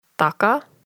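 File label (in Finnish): "taka", Finnish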